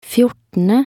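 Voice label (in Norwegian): "fjortende", Norwegian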